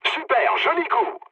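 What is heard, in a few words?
bomb success, mood low, French; War Thunder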